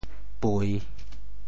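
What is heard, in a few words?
"pui3", Teochew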